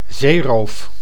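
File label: "zeeroof", Dutch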